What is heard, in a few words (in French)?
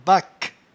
"baque", patois jura